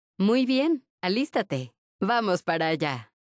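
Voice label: loading zone, Spanish (Mexico); retaildemo; Windows; Mixed Reality Learning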